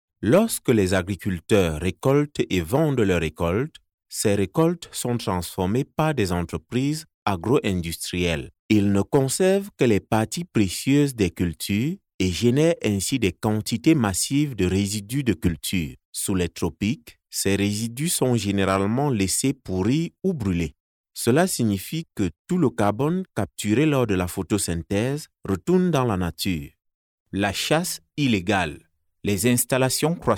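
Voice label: African French, male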